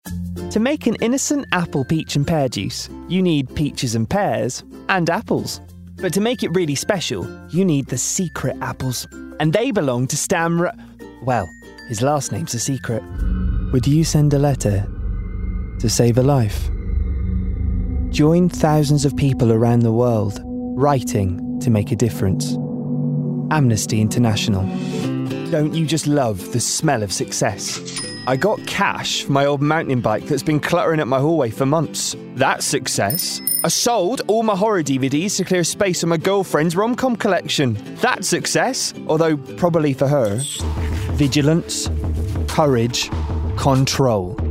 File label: Commercial Voicereel